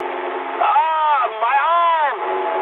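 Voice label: damaged pilot mood medium, speech English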